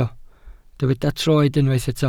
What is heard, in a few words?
"troed", Welsh